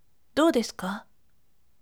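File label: voice, firm; doudesuka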